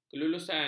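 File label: "kelulusan", Malay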